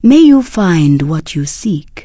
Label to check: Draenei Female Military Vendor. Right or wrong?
Right